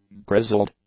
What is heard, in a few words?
"grizzled", english; adjectives